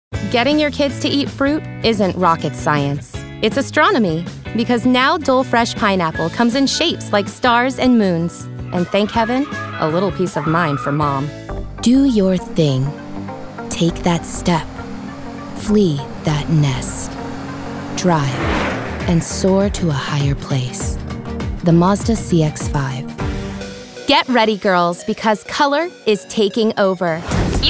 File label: Commercial